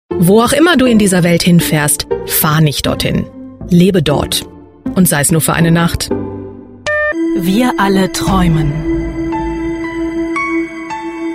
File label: germany voice over female commercial